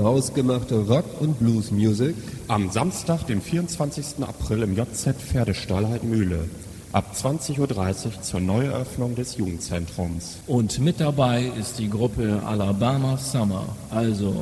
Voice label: radio promo spot